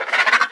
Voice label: Zombie